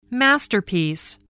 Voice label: "masterpiece", spelling voice